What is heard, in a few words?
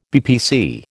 "BPC", American